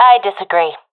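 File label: disagree, professional female; vo; agents; Source Model Packs; Counter Strike Model Pack; CS2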